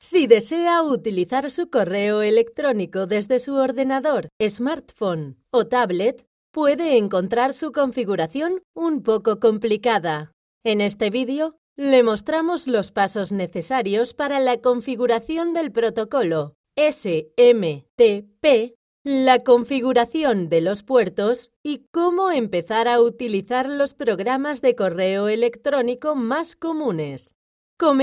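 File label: Spanish Spain narration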